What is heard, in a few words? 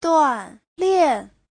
"duan4 lian4", chinese; Giáo trình Hán ngữ